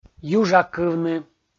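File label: "южакывны", Komi, male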